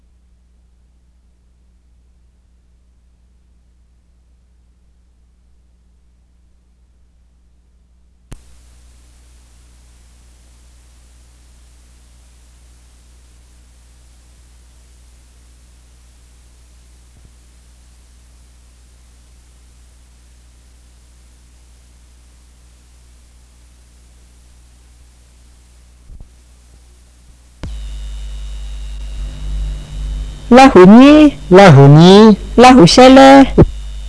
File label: Black Lahu